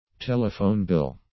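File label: "telephone bill", English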